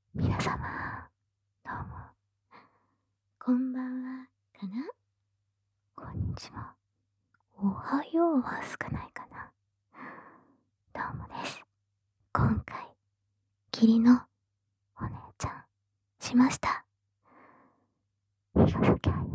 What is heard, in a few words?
FreeTalk, ASMR